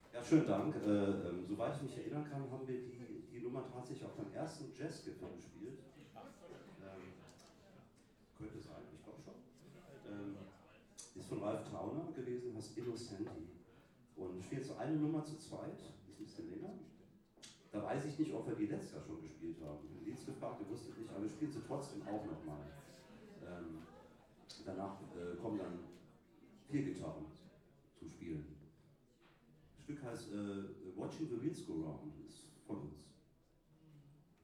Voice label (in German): Ansage; Jazz-Club Trier e.V. (JCT); 25. Jazzgipfel (Tufa, Trier)